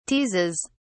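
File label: "teases", British English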